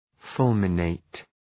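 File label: "fulminate", English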